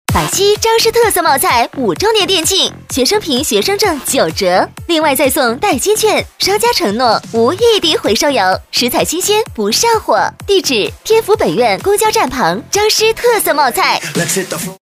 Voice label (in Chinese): 女 广告 促销 冒菜促销广告 活力